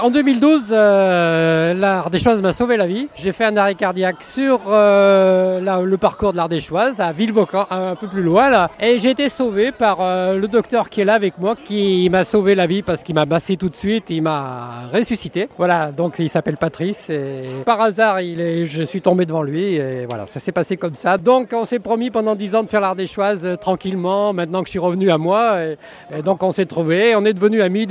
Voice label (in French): ARDECHOISE TEMOIGNAGE